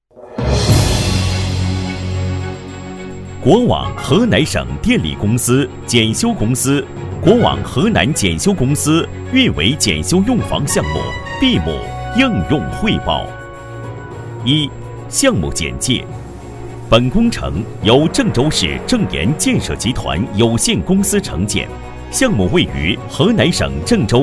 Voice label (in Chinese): 男国 多媒体 项目规划 汇报; 运维检修用房项目BIM应用汇报